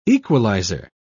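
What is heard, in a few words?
"equaliser", British English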